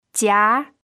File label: "jia2", mandarin pinyin